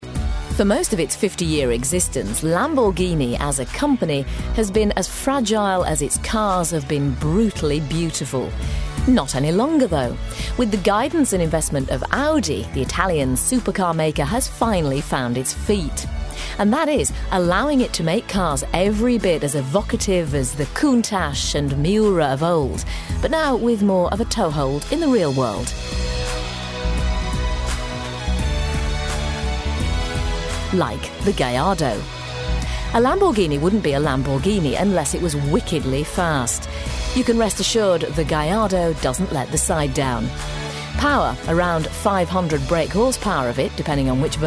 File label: contemporary